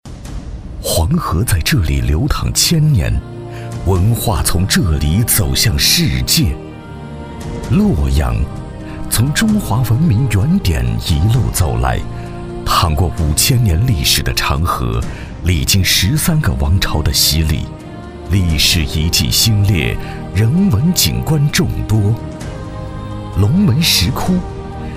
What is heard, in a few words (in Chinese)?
男 大气; 龙门石窟